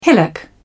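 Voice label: "hillock", British English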